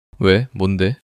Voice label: neutral